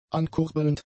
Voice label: "ankurbelnd", German; adjectives

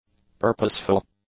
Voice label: "purposeful", english; adjectives

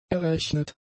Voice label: "errechnet", German; adjectives

